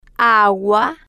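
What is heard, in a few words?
"agua", slow, spanish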